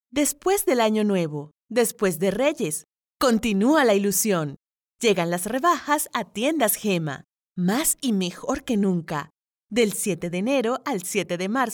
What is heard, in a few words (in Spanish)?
voice actor, Español - Neutro Latino Americano - Mujer; VoiceBunny